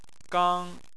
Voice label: "gang1", Chinese